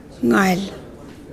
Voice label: "west", Yapese